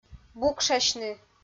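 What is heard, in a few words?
"букшасьны", Komi-Zyrian, female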